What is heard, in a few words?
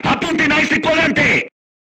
voice message art command oneself, crew tanks spanish